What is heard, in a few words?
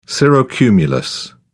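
"cirrocumulus", British English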